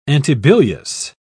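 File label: "antibilious", English, British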